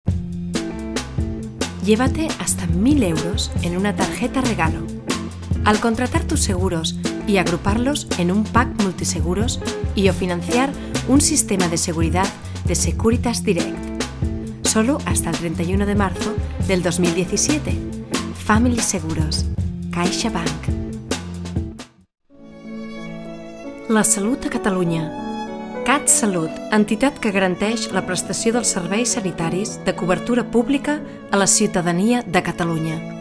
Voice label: female, Spanish Castilian and Catalan, Spanish Castilian compilation